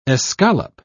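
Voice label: "escalope", British English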